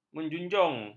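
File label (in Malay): "menjunjung", Malay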